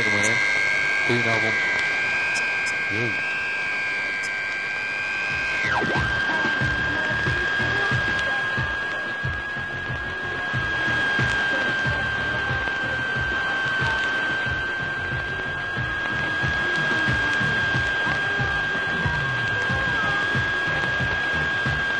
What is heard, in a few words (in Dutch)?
1665 khz technische man; kortegolf